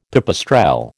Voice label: "pipistrelle", American